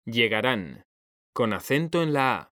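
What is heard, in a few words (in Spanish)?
"llegarán", Spanish, male; mekanta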